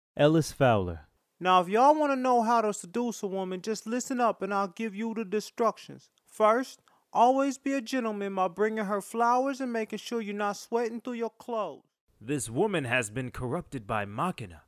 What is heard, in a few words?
character vo